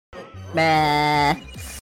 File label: "bruh"